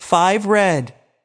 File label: voice Over; americanroulette3